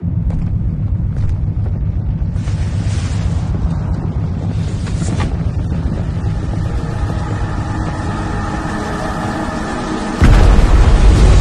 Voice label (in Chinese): 男 独白质感; oppo